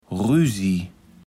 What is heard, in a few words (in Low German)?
"Rüüsie", Platt